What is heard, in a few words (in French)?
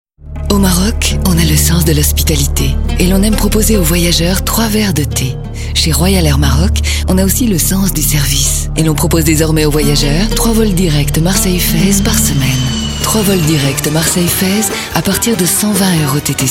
radio sensuelle elegante; royal air maroc